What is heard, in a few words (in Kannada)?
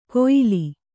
"ಕುಯಲು", Kannada